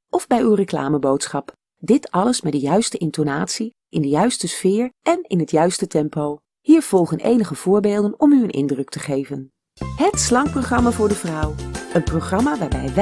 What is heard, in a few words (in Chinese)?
荷兰语女 外语 小语种